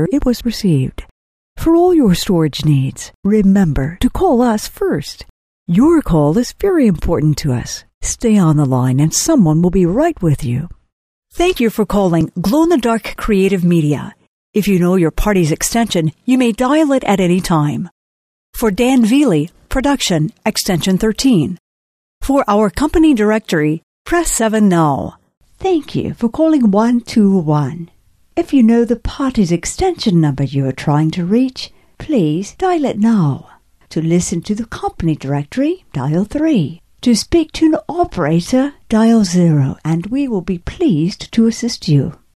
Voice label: On Hold